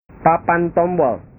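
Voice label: "papan tombol", Indonesian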